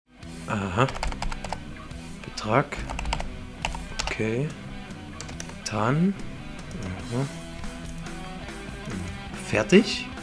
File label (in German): Werbung Sparkasse